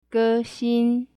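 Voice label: gexing, singer, mandarin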